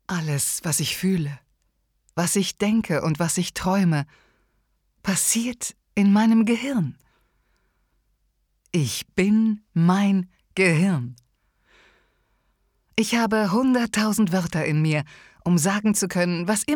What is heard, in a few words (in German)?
hypnose, sprecher deutsch frauen